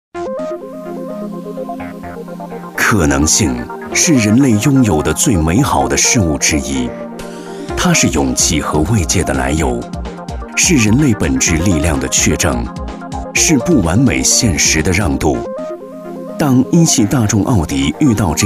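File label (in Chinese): 男 广告 汽车; 一汽奥迪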